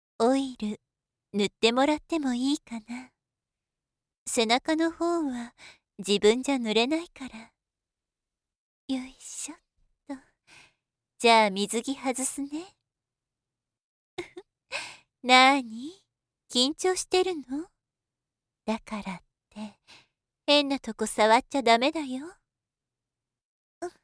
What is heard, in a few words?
female